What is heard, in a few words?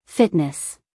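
"fitness", American English, female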